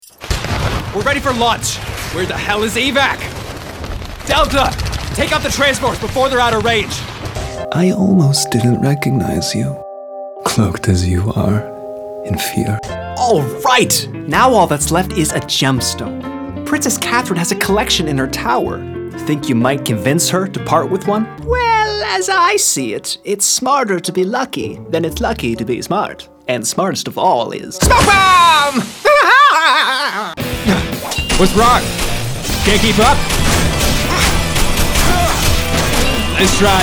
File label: Video Game, voiceover characters; Video Game Demo